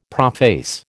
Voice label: "prophase", American